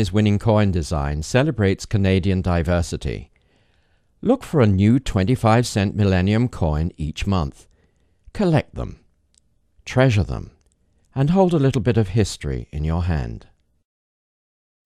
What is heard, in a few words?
voiceover